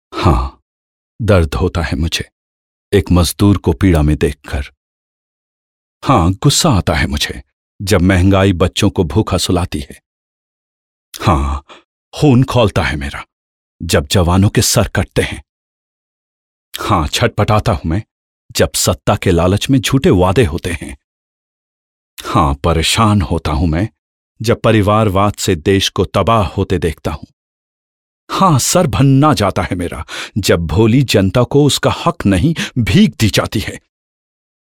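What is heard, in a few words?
Hindi voice over, male voice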